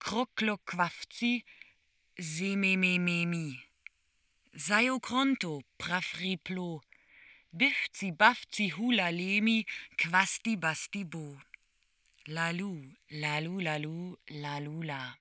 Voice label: female